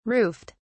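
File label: "roofed", English, British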